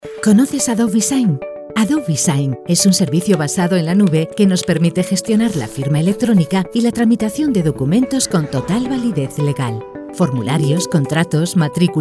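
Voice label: comercial, Spanish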